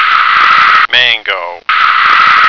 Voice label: "mango", American